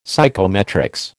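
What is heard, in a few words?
"psychometrics", American English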